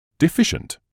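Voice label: "deficient", British English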